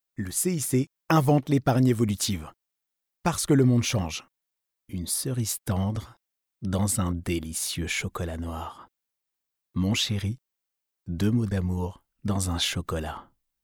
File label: male french voice over sales, france